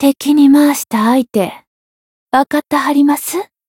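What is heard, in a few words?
variable chant, Japanese; hoozuki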